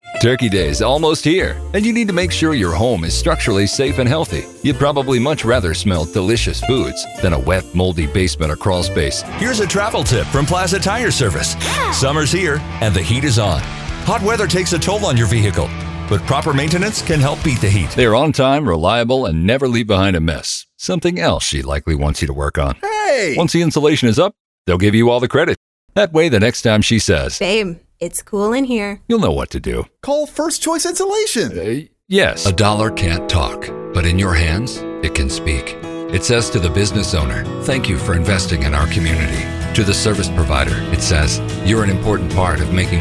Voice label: Commercial